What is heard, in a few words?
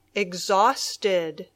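"exhausted", English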